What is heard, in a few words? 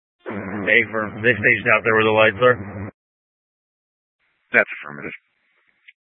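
Incident Tac 2, Scanner audio recording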